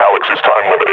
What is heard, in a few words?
player is hurt, grunt; Half Life Model Pack